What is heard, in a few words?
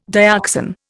"dioxan", Indian